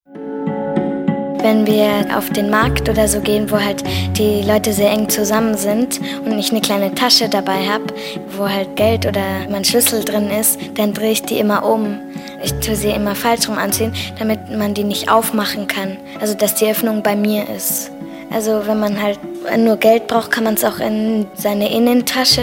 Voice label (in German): Kinderfunk Kind; deutsch